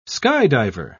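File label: "skydiver", British English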